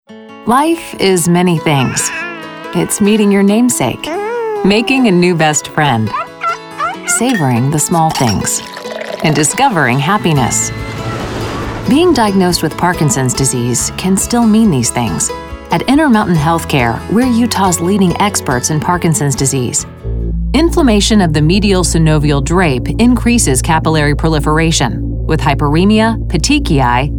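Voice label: Medical Narration, Female Voice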